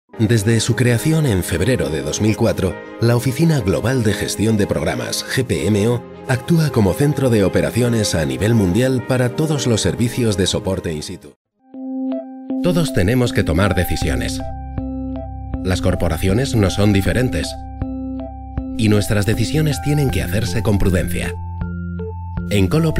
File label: Spanish Castilian corporate